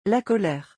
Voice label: "la colere", francais

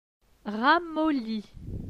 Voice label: "ramolli", francais

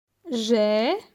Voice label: "jai", francais

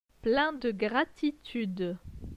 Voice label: "plein de gratutude", francais

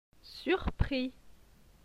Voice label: "surpris", francais

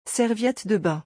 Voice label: "serviette de bain", francais; vocabulaire; le lexique des vacances

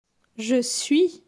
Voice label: "je suis", francais

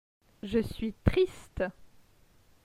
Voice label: "je suis triste", francais

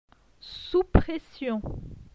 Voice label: "sous pression", francais

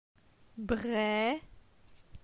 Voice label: "Bre", phonetique; la syllabe e

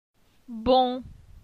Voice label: "Bon", phonetique; la syllabe on

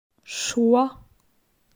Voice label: "Choix", phonetique; la graphie x

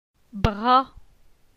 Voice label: "Bra", phonetique; la syllabe a

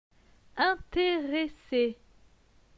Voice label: "interesse", francais

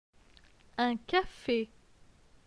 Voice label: "un cafe", francais; phonetique; les consonnes

